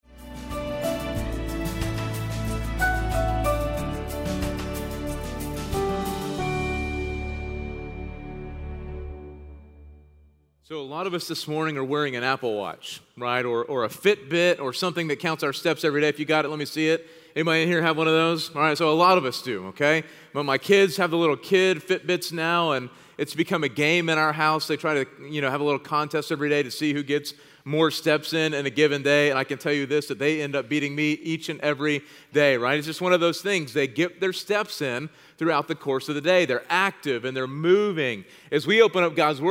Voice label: Sermon English